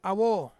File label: "awo", Ansus; pandanus fruit